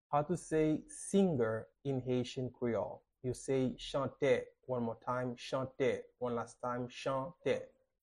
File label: Chante pronunciation by a native Haitian Creole teache; How to say Singer in Haitian Creole